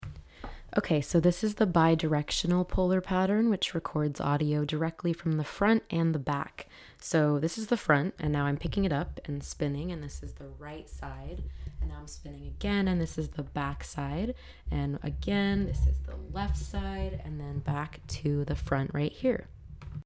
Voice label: bidirectional

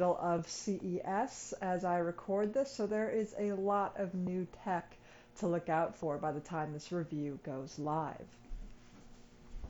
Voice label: Samsung Galaxy Buds Pro microphone

